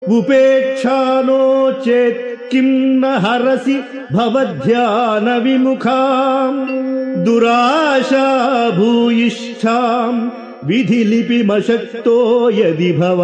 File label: Sanskrit; Sri Adi Sankaracharyas Sivananda Lahari; Adi Shankaracharya; श्रीशिवानन्दलहरी १५